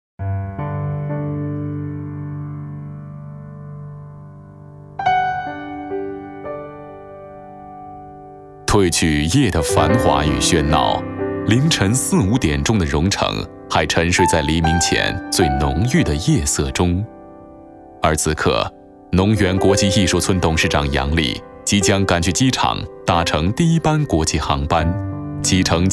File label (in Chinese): 纪录片 人文历史, 男国; 浓园艺术村